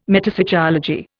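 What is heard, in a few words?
"metapsychology", English, Indian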